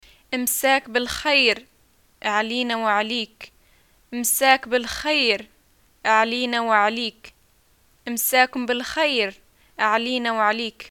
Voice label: greeting evening, arabic

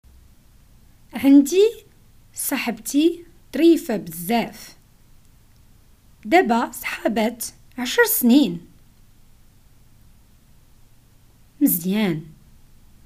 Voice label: dialogues, Moroccan Arabic; friendship

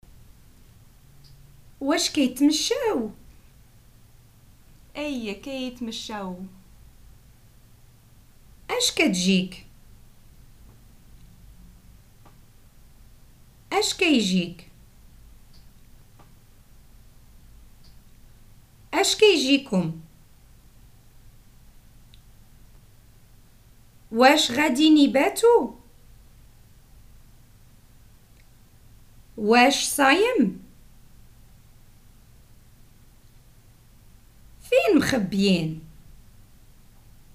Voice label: prompts, Moroccan Arabic

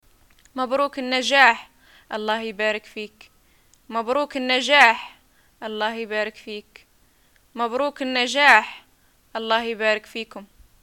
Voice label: greeting graduation, arabic

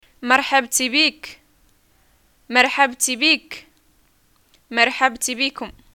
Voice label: greeting arrival, arabic, ws

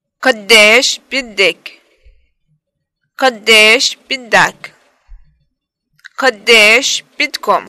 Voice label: money how much i owe you, arabic levantine

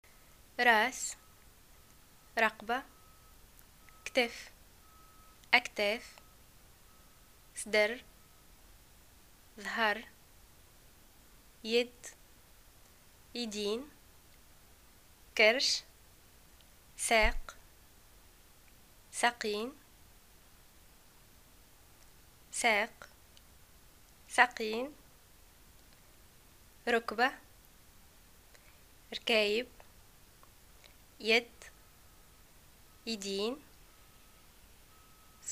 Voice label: body parts, arabic

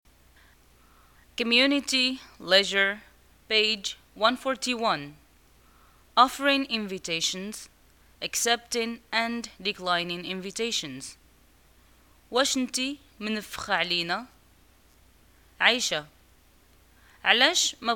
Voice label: dialogue, Arabic, North African, Moroccan

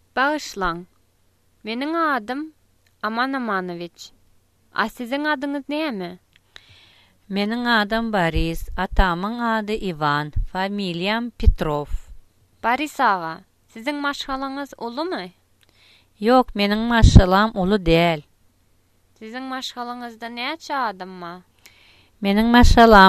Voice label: dialogue, turkmen

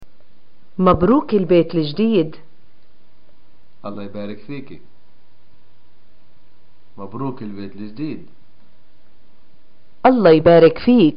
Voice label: greeting house, arabic

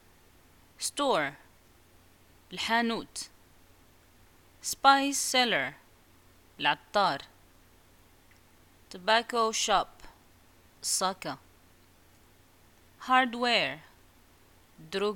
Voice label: shopping, Arabic, North African, Moroccan

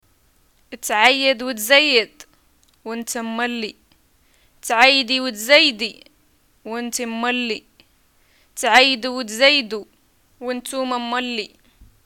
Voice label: greeting eid and response, arabic

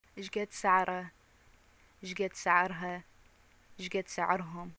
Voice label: shopping how much, arabic, iq

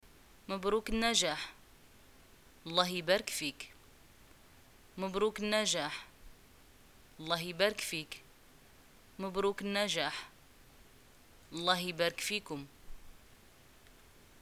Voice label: greeting graduation, arabic

